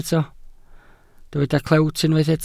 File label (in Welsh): "clewt" Welsh